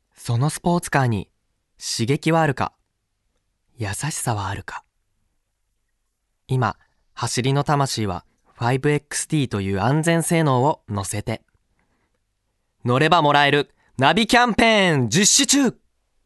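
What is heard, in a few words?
Narration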